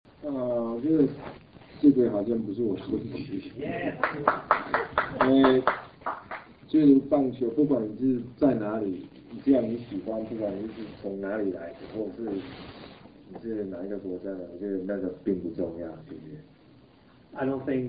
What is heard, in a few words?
Taiwanese Or Chinese